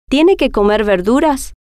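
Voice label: tiene Que Comer Verduras, Spanish, female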